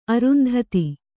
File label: "ಅರುಂಧತಿ", Kannada